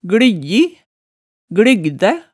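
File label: "glyggji", Hemsedal dialect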